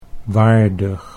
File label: "waardig", Dutch